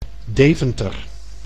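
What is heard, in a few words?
"Deventer", Dutch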